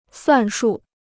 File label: "算数", Chinese